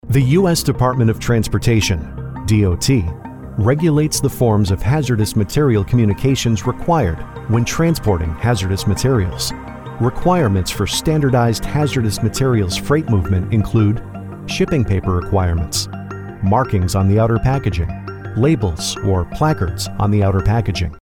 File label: Hazardous Materials, corporate presentation voiceover